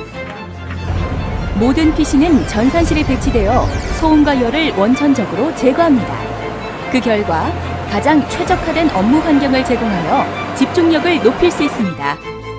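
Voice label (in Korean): 객관적 정보 전달 내레이션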